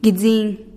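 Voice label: "gidziin", Teotitlán del Valle Zapotec; bat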